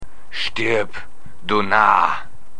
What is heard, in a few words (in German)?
Narr